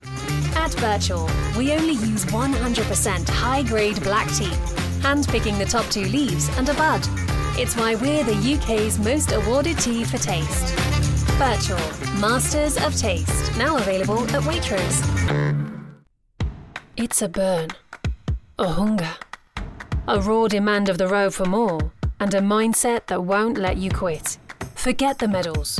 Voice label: Commercial Voicereel Warm Trustworth Bright, female voices